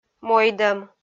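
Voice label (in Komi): "мойдӧм", Komi, female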